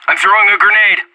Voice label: grenade, player vo anarchist; Counter-Strike Global Offensive